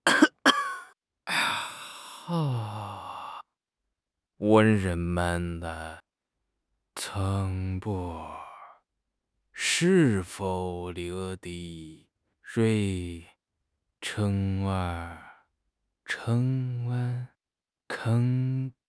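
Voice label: "ai4 hao4", Mandarin Chinese; HSK3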